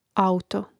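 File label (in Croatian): "auto", Hrvatski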